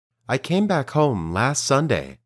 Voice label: Dictation